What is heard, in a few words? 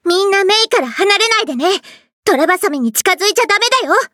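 start, Japanese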